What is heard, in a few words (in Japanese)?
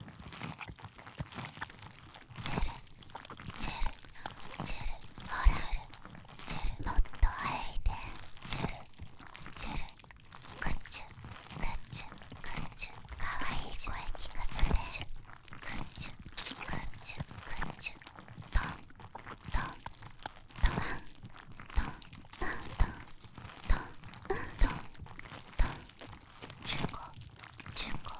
凌辱エンドループ ささやき魔法